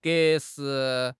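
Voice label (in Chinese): "格式", Chinese; HSK; HSK6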